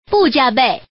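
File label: Woman, "don't double"; bujiabei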